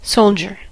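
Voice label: "soldier", English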